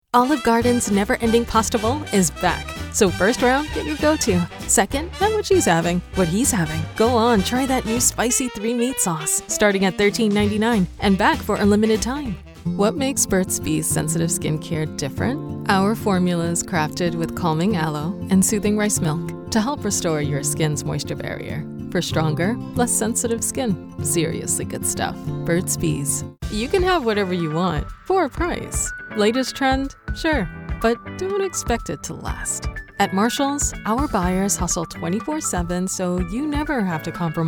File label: english american commercial